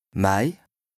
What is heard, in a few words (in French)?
"Mäi", lexique indispensable bas rhin, Alsace